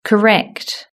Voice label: "correct", british english

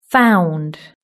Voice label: found, british english

